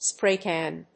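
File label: "spray can", English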